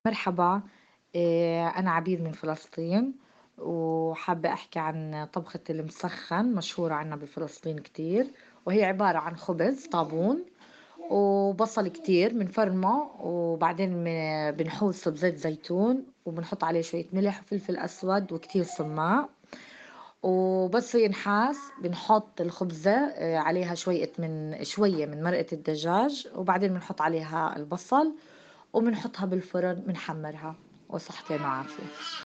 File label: Palestinian Recipe, Arabic; Manchester